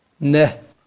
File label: "neh", Kurmanji